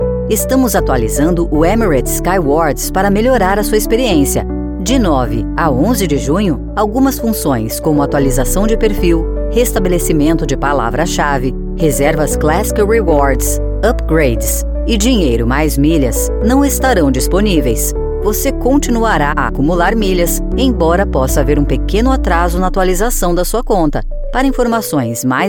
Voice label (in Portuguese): portuguese brazilian ivr